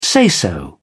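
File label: "say so", English, British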